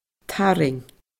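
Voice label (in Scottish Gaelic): "tarraing", Scottish Gaelic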